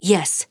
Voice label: emote yes; Flak; VGS; paladins